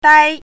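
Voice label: "dai1", Mandarin Chinese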